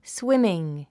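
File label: "swimming", English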